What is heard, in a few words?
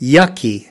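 "yucky", English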